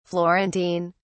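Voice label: "florentine", British English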